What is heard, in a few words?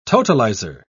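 "totalizer", English, British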